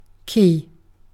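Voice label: "key", British English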